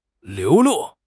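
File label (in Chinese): "流露", Chinese; HSK; HSK6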